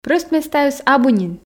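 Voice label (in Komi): прӧст местаыс абу нин, Komi, female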